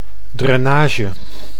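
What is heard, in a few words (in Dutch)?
"drainage", Dutch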